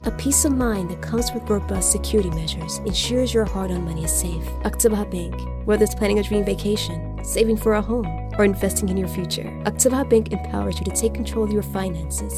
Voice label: Bank Commercial; Voice123